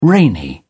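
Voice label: "rainy", British English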